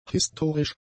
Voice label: "historisch", German; adjectives